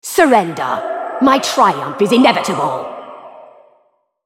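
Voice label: taunt, English; Lissandra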